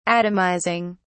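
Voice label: "atomizing", English, uk British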